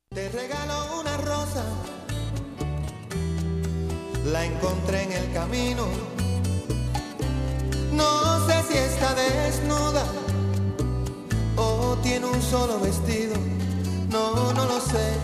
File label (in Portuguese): Dia das Maes pra madrinha, M